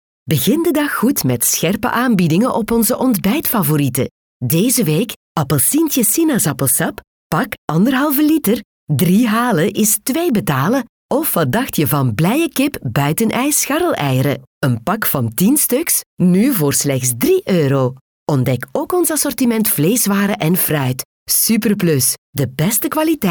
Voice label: Flemish Casual Conversational